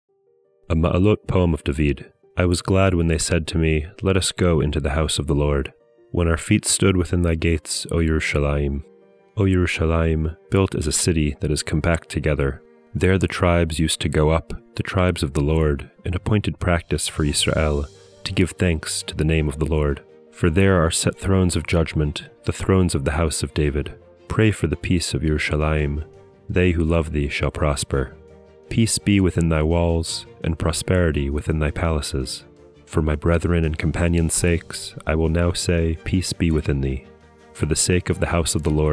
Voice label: poetry and prayer psalm english